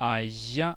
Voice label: voiced palatal fricative